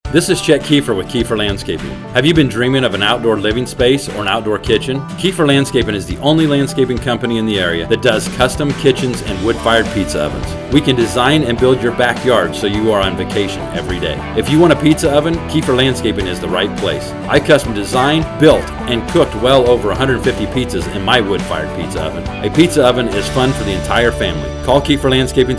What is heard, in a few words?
male; Kiefer Landscaping; Outdoor Living